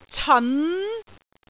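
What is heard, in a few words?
"ceon2", Cantonese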